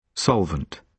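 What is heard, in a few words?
"solvent", British English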